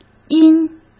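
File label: "阴", Mandarin Chinese; hsk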